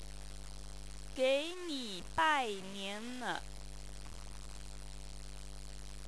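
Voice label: "geinibainianle", Chinese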